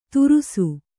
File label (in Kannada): "ದುರುಸು", Kannada